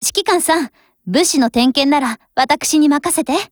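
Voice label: DIALOGUE Japanese; 92type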